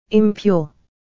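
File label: "impure", English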